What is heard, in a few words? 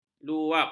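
"luap", Malay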